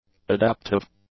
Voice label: "adaptive", English; adjectives

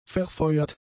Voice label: "verfeuert", German; adjectives

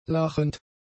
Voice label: "lachend", German; adjectives

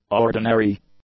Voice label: "ordinary", English; adjectives

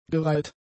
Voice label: "gereiht", German; adjectives

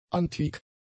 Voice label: "antik", German; adjectives

